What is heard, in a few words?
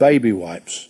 "baby wipes", Voice